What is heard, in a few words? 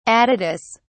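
"aditus", British English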